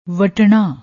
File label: "watna", Punjabi; ਵਟਣਾ